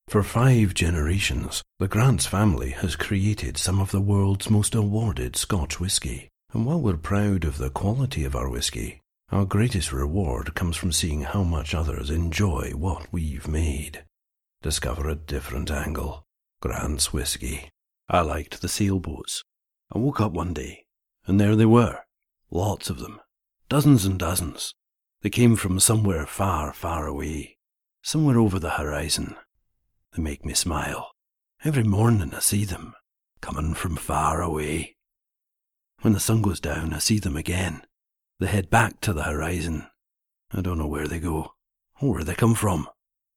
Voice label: Scottish Accented Reads Dry